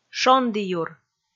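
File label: "шондіюр", Komi, female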